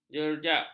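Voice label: "jerjak", Malay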